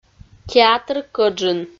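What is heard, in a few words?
"театр кӧджын", Komi, female; audio dict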